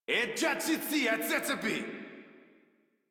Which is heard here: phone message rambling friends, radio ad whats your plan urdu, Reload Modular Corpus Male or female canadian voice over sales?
Reload Modular Corpus Male